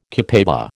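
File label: "copaiba", American English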